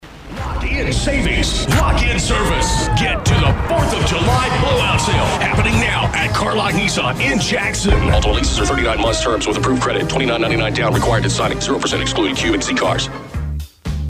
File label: ads, FM DX; ADULT CONT; Es (1202 miles); WHHM 107.7 JACKSON TN 50; Star 107.7 RDS Jackson ads